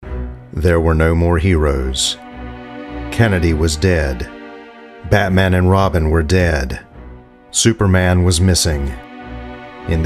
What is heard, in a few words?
narration